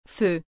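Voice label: "feu", French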